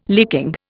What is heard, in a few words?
"licking", Indian